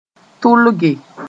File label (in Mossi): "tʋlge", moore; desire